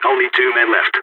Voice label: two enemies left, balkan; vo